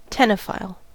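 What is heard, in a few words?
"ctenophile", American English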